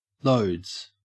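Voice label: "loads", Australian English; pronunciations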